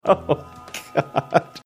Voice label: laughing saying oh god

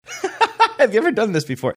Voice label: laughing have you ever done this before

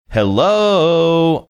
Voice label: saying hello sing singy

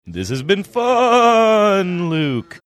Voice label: saying this has been fun semi spooky

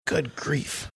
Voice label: quietly saying good grief

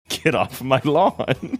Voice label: chuckling saying get off my lawn

